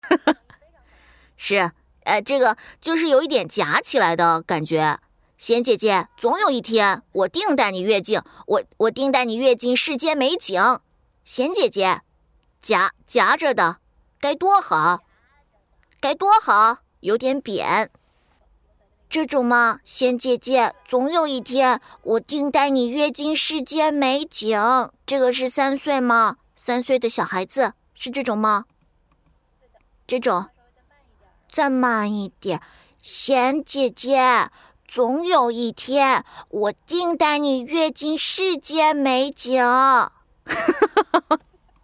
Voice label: 女 中文童声 男童

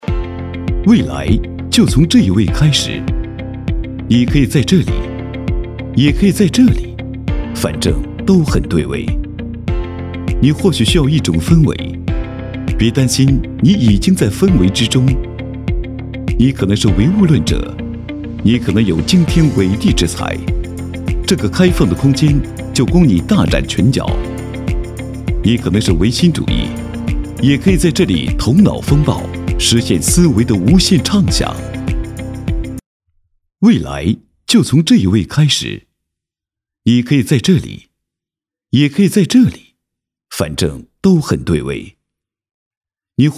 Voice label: 男, 科技感; 共享办公

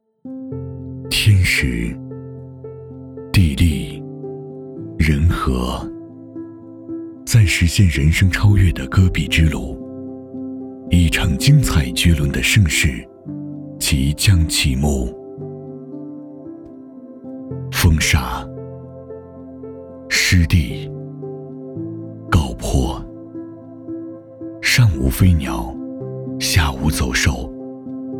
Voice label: 男 舒缓

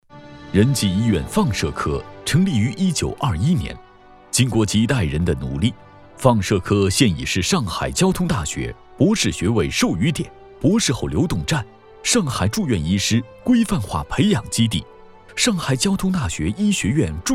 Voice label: 男 医院专题

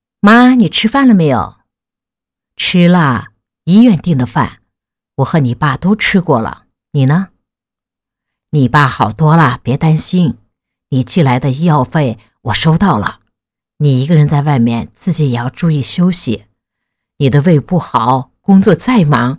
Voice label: 模仿 50 60岁老母亲给儿子打电话

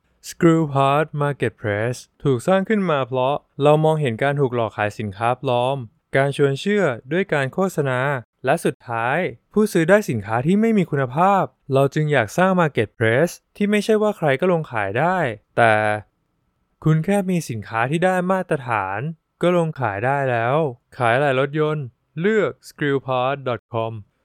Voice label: 泰男 平稳自然

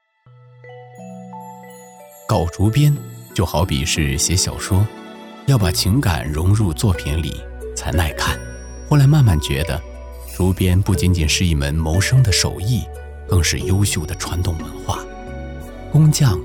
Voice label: 粤男 纪录片 竹编; 搞竹编

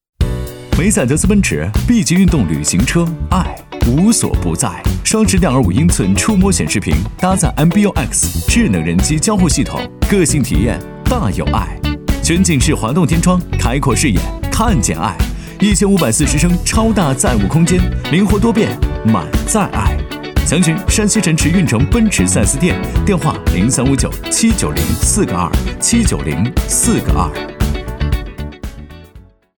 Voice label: 男 轻快广告 车

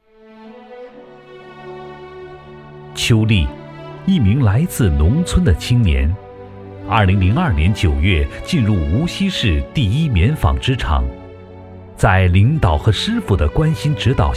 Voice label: 男 人物专题; 劳模邱丽

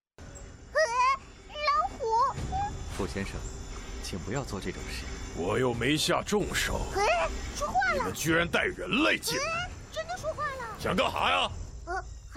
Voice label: 动漫配音; 图特哈蒙罗小黑府先生

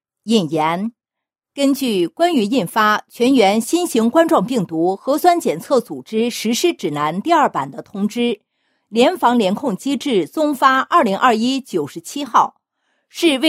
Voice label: 女 声明类 严肃播报; 核酸检测

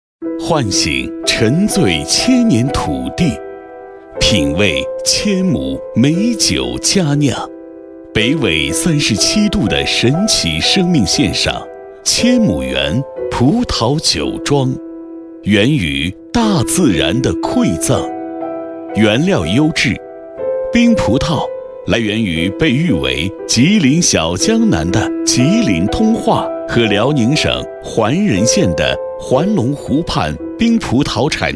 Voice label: 男; 千亩园葡萄酒

